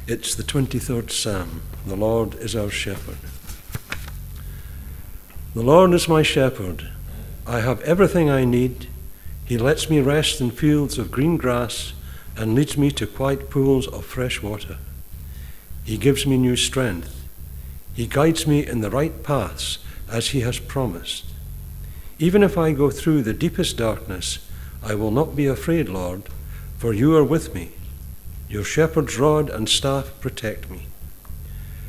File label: read